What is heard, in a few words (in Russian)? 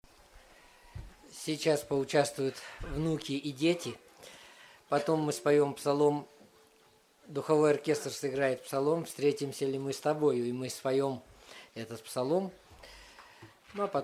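Объявление; Похороны Я.Г. Скорнякова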